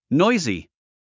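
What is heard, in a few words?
"noisy", English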